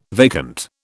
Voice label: "vacant", British

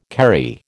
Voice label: "Kerry", American

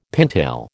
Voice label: "pintail", American

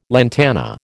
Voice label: "lantana", American

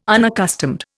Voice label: "unaccustomed", Indian

